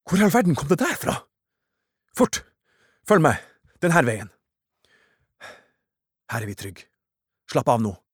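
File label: dub, Norwegian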